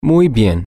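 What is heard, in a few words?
very well, spanish, male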